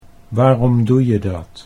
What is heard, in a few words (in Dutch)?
waarom doe je dat, Dutch